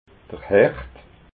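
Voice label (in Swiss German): "hecht", Alsatian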